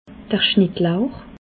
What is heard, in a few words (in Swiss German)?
"schnittlauch", alsace